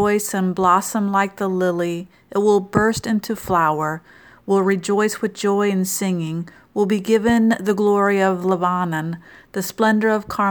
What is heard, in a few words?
Childrens Bible Verse